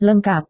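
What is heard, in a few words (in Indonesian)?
"lengkap", Indonesian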